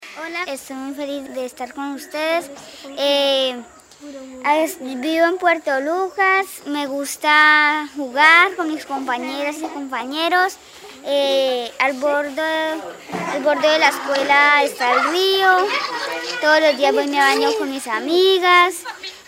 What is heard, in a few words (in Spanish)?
Mensaje niña; herramienta metodologica del monumento sonoro por la memoria; mi voz es tu voz; Audios de presentacion ninos y ninas de la obra musical